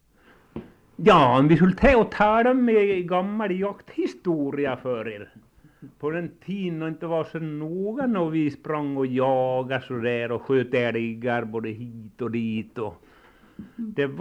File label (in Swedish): Svärdsjö, dialekter; Folkminnen; Svenska sagor